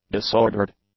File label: "disordered", English; adjectives